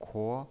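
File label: "kuo", pinyin, Mandarin Chinese, second tone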